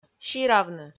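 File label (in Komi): "сиравны", Komi, female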